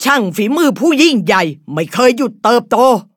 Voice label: levelup, th; cookie run

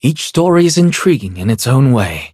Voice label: levelup en; cookie run

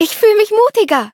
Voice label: levelup de; cookie run

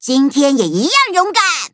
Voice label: touch, zh-hant; Cookie Run

